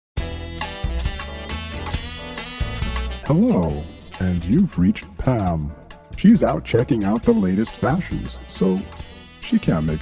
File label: phone message; Body Tone Multimedia